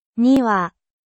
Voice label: niwa for japanese pronunciation